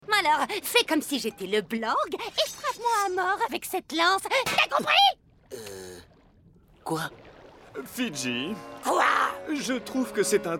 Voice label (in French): dessins animes; chat potte